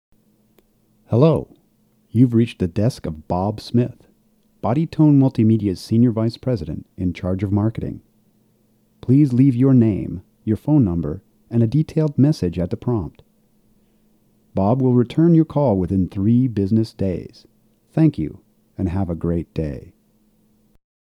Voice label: Voice-Over example; Body Tone Multimedia